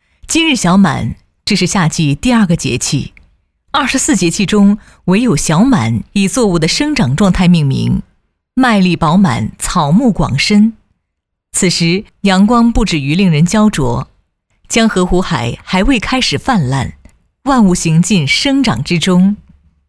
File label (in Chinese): 女 视频号 节气 小满